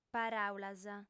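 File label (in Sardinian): "paràulas", female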